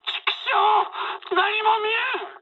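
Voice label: searchlite mood high, speech japanese; War Thunder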